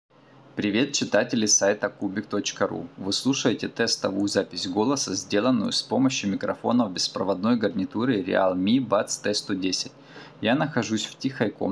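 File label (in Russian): тест микрофона realme buds t110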